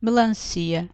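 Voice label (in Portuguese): "melancia", Portuguese; fruta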